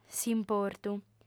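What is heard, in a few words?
"s'importu", Sardinian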